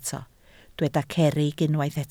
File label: "cerrig", Welsh